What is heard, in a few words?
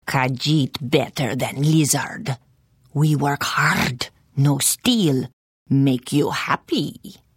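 Hello, Khajiit female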